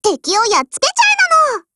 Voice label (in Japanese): ATTACK JP